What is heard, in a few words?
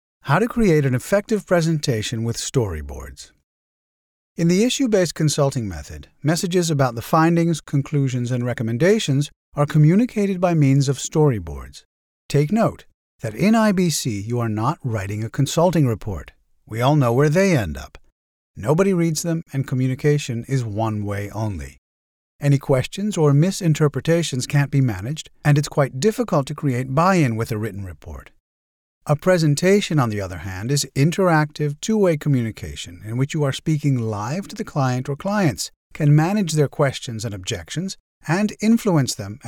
e-learning; IBC consulting